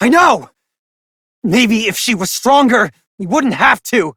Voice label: Operator Voice, en; Warframe; New War